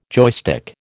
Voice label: "joystick", American